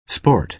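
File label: "sport", American English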